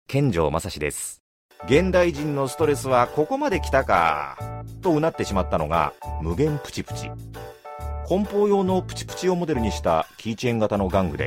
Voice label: male VP